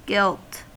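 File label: "gilt", English, US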